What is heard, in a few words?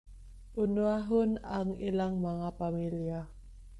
Cebuano; prioritize their families - unahon ang ilang mga pamilya